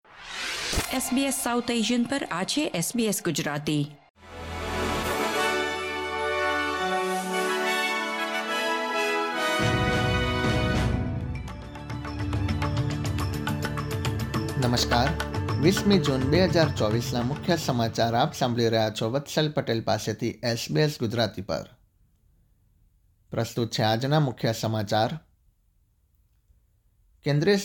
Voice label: gujarati news bulletin; SBS Gujarati News Bulletin 20 June 2024